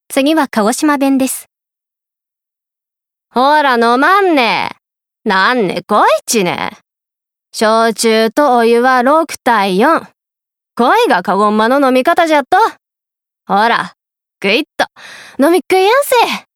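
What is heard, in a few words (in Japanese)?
方言, 鹿児島弁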